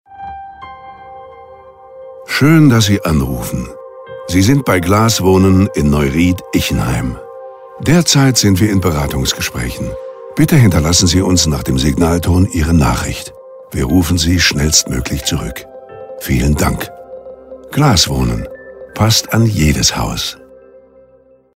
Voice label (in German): Wenn besetzt, Telefonansagen; Glaswohnen